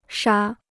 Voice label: "杀", Chinese